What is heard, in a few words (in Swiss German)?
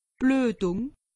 "bluetung", alsace; medecine